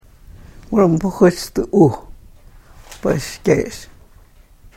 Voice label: karuk